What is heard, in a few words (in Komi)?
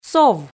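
"сов", Komi, female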